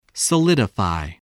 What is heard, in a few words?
"solidify", English voice